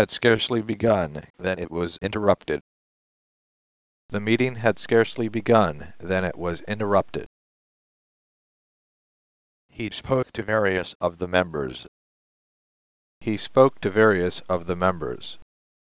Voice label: synth voice